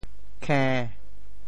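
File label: "khe~1", Teochew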